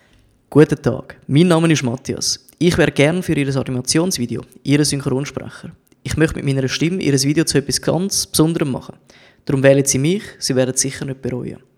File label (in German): Schweizerdeutsch